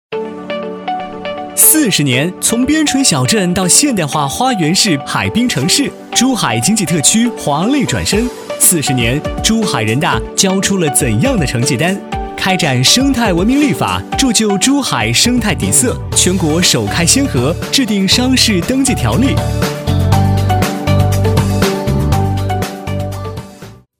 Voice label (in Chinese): 男 飞碟说 人大40年成绩单; 珠海